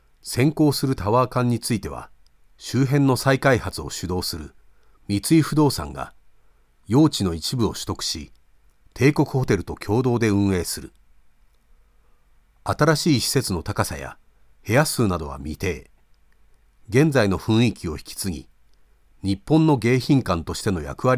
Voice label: japanese audiobooks